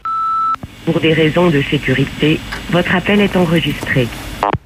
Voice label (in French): Appel enregistre repondeur